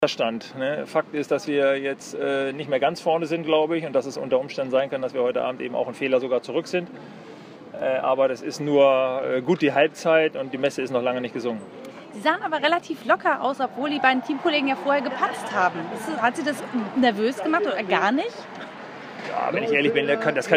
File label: ger; FEI Aachen Beerbaum rd2